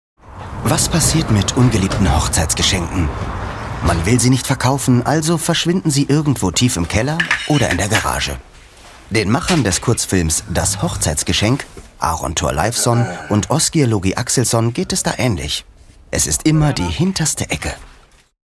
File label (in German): Doku Kommentar; Kurzschluss Geschenke